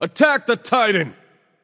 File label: vox attack m; smite; heimdallr